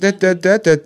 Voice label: "dut dut dut dut", voice

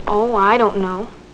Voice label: "oh i don't know", female; Voice

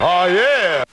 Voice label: "ah yeah", voice

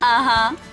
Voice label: "uh huh", female; ooh ahh; uh um

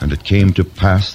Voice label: and it came to pass, announcer

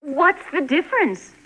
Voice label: what's the difference, female; questions

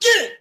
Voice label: "yeah" yuh

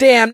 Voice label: "damn", expletives

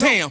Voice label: "damn", expletives

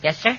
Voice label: yes sir, cartoon

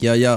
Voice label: "yo yo", Voice

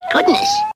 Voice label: "goodness", girl; expletives

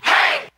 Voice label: hey, group of men

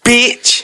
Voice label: "bitch", expletives

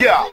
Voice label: "yo", Voice

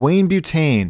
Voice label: male; Voice drops

